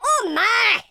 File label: "what the", Scotsman; speech